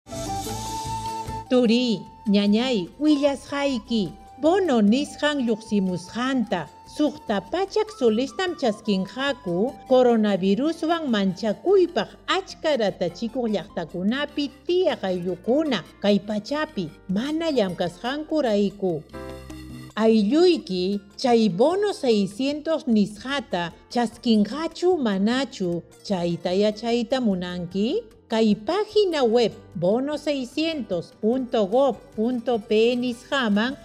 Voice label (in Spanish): quechua chanka, spot de radio; precauciones al salir de mi comunidad; bono 600